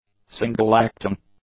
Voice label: "single-acting", English; adjectives